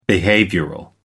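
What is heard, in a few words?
"behavioral", en, uk British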